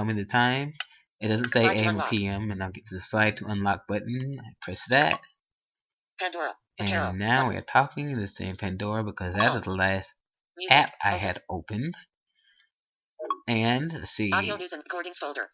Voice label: sample of iphone and voiceover